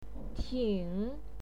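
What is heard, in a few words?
"ting", Mandarin Chinese, third tone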